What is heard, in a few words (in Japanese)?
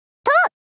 とうっ！ 少女×中音域